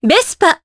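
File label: Vox, voices, Japanese; Veronica; Vespa; kingsraid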